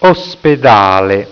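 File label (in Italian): "ospedale", Italian; plaatsen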